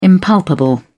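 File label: "impalpable", British English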